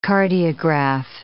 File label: "cardiograph", English